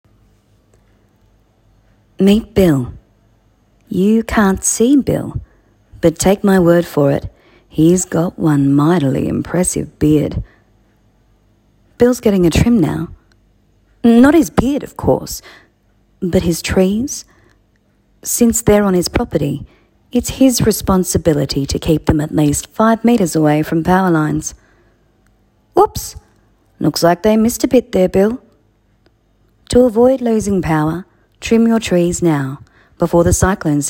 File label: Straight read